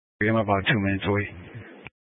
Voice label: Sheriff Dispatch, scanner; Mesa County Sheriff Dispatch

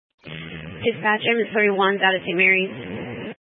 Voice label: Fire Dispatch

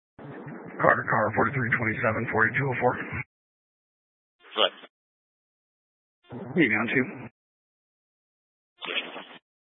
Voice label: Mesa County Sheriff Dispatch

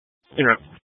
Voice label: Fire Dispatch, scanner

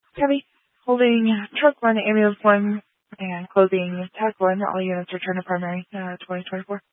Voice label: Tactical Incidents, scanner

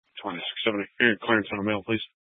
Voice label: Sheriff Dispatch, scanner; Mesa County

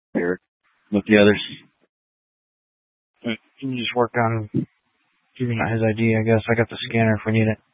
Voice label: Incident Tac 3, scanner

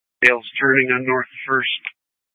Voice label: Tactical Incidents, scanner

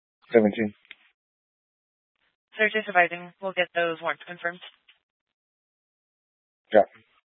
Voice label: Police Department Dispatch, scanner

